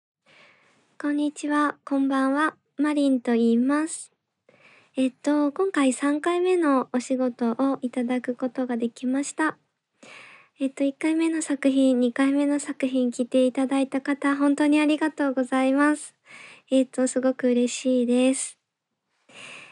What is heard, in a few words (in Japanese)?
自己紹介インタビュー; 聞くだけで通勤ストレス解消!電車でこっそりエロ音声を聞いて興奮したい人のための通勤オナラジオ